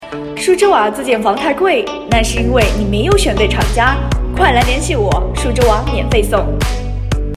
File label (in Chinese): 配音